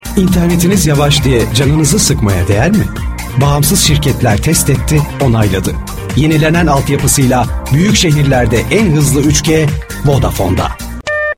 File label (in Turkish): reklam konusma tarzinda; vodafone